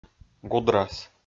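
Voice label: "гудрас", Komi-Zyrian, male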